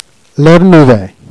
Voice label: "ernouve", Jèrriais